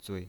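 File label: "zui", Mandarin Chinese, neutral tone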